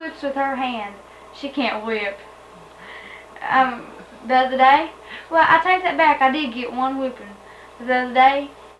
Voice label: Conversation with 16 year old white female Arkansas; american english dialect